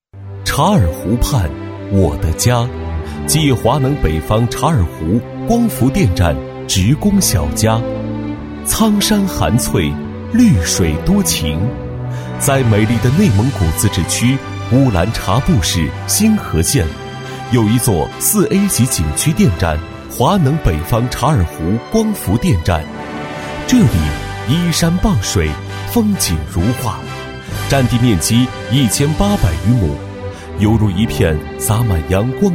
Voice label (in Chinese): 男 企业宣传 大气抒情; 年轻气质; 大气浑厚; 察尔湖畔我的家